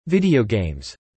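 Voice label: "video games" spoken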